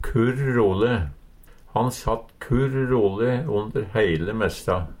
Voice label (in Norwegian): kurr role, Numedalsmål; heilt roleg